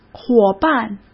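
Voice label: "伙伴", Mandarin Chinese; chinese-flashcards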